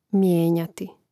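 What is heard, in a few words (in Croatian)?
"mijenjati", Croatian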